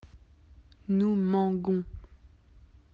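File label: "nous mangeons" French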